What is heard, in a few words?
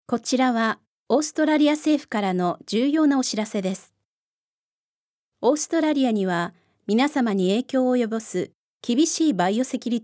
shore exc japanese; Shore Excursion Announcement - Japanese 2015; cruise vessels